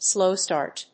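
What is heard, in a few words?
"slow start", English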